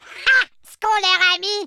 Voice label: victory, French; speech